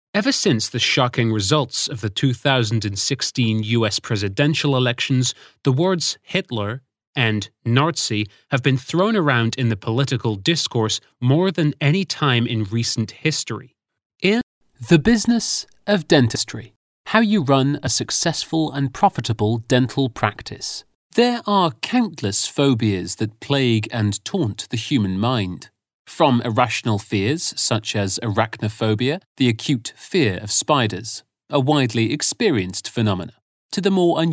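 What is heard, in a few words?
English, male; lektoring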